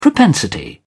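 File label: "propensity", British English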